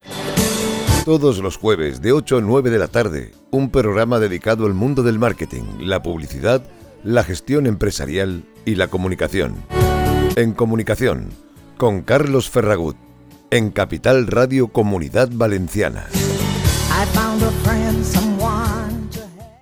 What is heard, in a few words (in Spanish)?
Promo